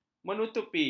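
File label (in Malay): "menutupi", Malay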